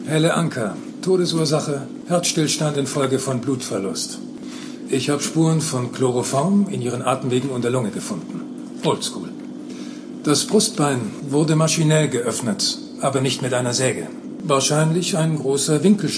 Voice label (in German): Synchron; Die Bruecke Transit in den Tod